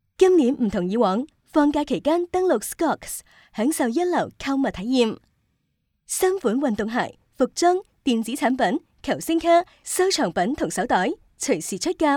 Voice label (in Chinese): 女, 粤语广告